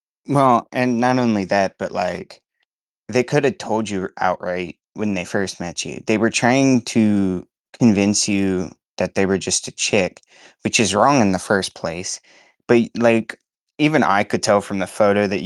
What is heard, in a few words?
voice message